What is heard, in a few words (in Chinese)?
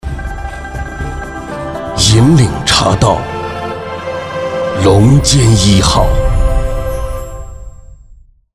男 电视广告 茶叶广告; 龙尖一号